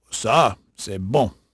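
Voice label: French male, voice; Pirates Of The Caribbean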